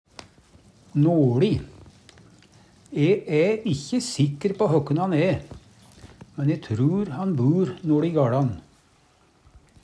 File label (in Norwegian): noLi, Numedalsmål; E æ ikkje sikker på høkken han æ, men e trur han bur noLi gaLan.; Adverb